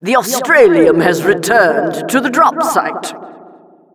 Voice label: Announcer drop site